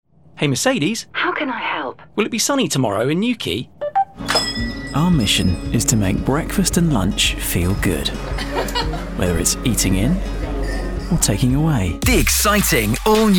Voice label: Commercial